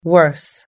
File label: "worse", us-english